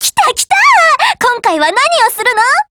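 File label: GO ATTACK Japanese; P90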